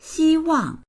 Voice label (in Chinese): "xi1wang4", voices; 希望; 希望する; dictionary